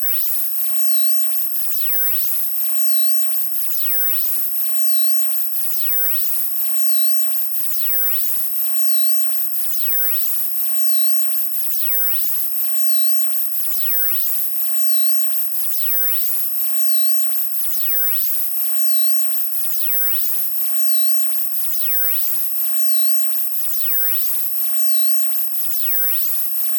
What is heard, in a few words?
Tv Talk